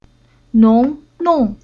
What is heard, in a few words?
"Nong", Chinese; Уроки фонетического блока